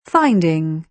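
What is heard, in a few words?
"finding", British English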